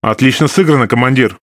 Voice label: RU Speech Quick Commands Good Game Ship; World Of Warships; USSR Ovechkin